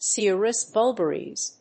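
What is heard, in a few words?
"Sciurus vulgaris", English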